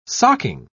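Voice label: "socking", British English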